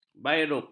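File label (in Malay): "bairup", Malay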